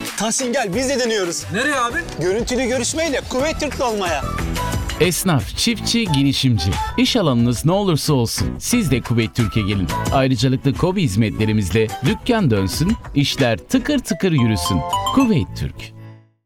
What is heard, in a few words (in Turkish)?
reklam ses kisa; kuveyt turk